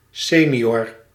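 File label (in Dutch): "senior", Dutch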